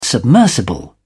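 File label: "submersible", British English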